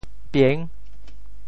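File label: "pieng6", Teochew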